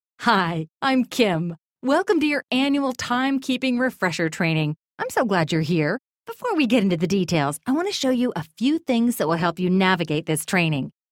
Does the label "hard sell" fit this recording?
No